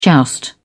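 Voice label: "joust", British English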